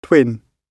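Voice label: "twin", British English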